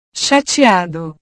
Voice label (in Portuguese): "chateado", portugues